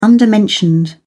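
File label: "undermentioned", English, British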